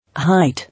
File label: "Height", English